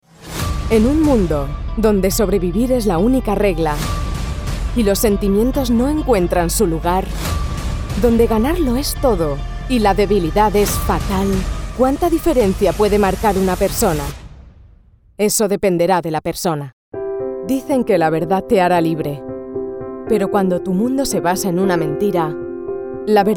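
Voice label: Spanish, female, Trailer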